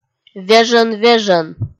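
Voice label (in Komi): "вежӧн-вежӧн", Komi, female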